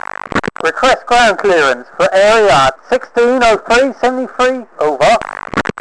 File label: chat